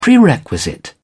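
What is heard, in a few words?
"prerequisite", British English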